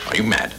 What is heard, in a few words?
are you mad, british bloke; questions